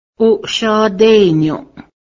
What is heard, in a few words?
clans, Cayuga